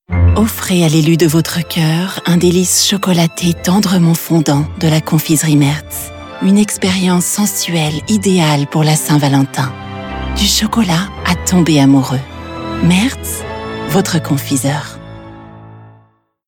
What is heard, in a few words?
Switzerland Commercial Chocolate